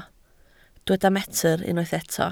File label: "metr", Welsh